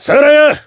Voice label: attack jp; hardy

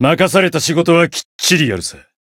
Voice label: chant jp

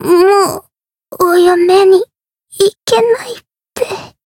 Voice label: retire, jp

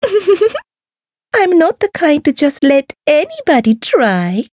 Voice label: story; hoozuki

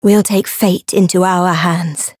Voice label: Voice variable chant; radica

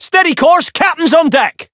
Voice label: Voice variable chant; dennie

